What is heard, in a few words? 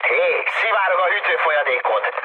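Hungarian damaged water mood med, speech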